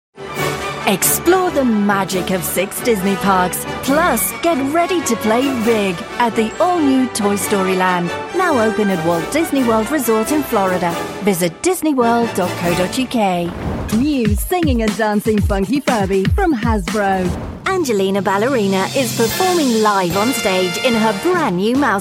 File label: child orientated commercials